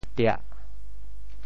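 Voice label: "tiah4", Teochew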